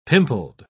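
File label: "pimpled", British English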